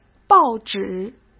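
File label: "报纸" Mandarin Chinese; chinese flashcards; hsk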